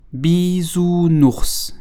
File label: "Bisounours", French